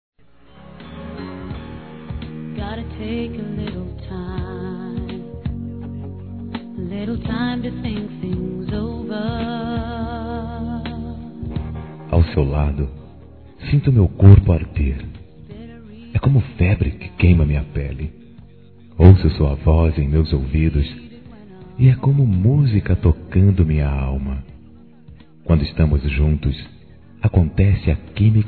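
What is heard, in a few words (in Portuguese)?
PICANTE, Masculino; Telemensagens; Dia Dos namorados; Telemensagens 2017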